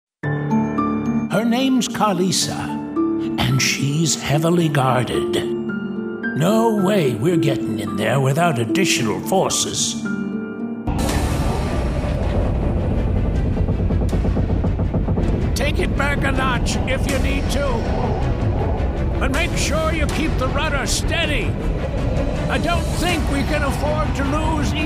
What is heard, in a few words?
english american animation